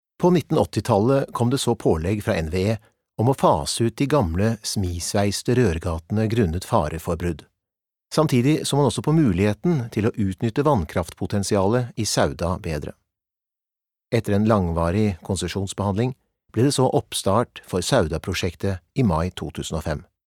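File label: Norwegian corporate, mann; norsk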